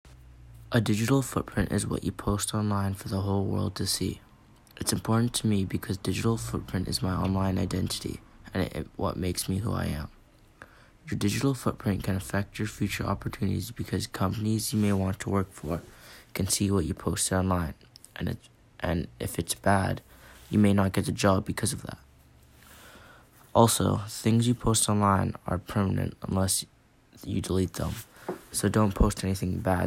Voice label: Podcast; Digital footprint